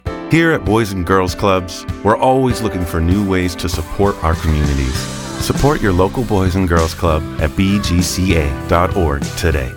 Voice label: Commercial; Boys and Girls Clubs